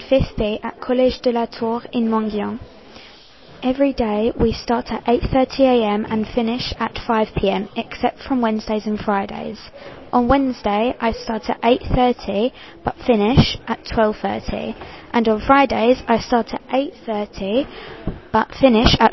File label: english classes UK